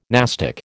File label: "nastic", American